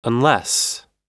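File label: "unless", American English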